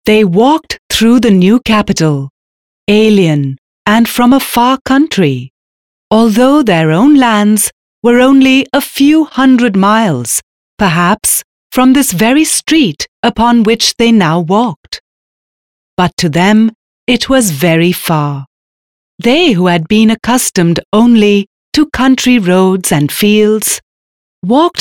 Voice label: female voice